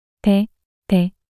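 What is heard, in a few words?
"배베" Korean, female; 낱소리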